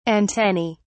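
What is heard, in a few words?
"antennae", British English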